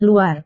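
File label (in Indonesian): "luar", Indonesian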